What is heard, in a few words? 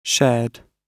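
"shared", British English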